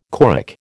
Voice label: "choric", American

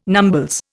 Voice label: "numbles", Indian

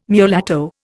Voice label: "mulatto", Indian

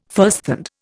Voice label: "firsthand", Indian

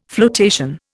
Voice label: "floatation", Indian

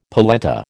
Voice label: "polenta", American

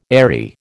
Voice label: "eyrie", American

